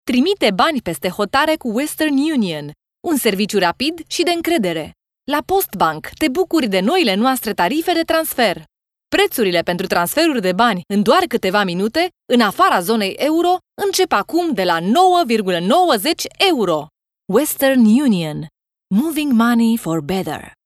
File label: ro commercial